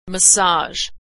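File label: "massage", American English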